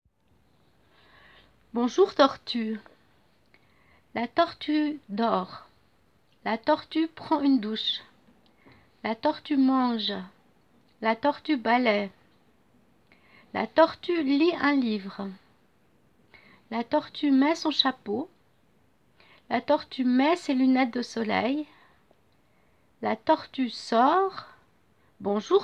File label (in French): francais; bonjour tortue